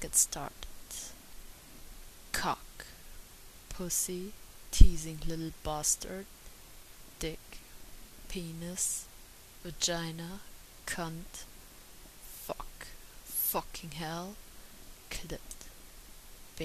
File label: Accent Challenge; F4A